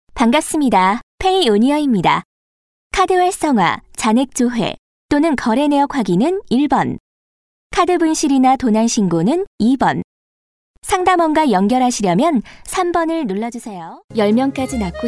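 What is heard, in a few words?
Korean, female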